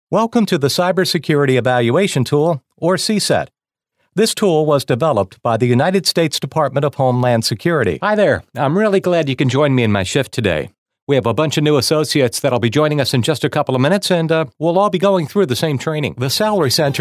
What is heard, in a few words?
eLearning Session